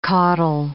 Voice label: "caudal", English

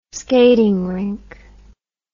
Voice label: "skating rink", English

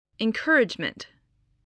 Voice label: "encouragement", spelling

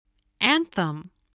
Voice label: "anthem", spelling

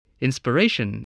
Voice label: "inspiration", voice spelling

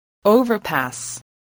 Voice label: "overpass", English voice

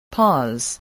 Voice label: "pause", English, voice

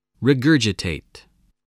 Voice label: "regurgitate", spelling voice

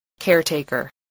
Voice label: "caretaker", spelling

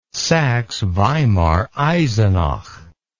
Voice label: "saxe weimar eisenach", English

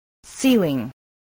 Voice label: "ceiling", spelling

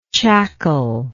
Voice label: "chackle", English

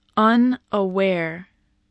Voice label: "unaware", English spelling voice

